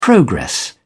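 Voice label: "progress", British English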